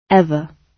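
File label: "ever", British English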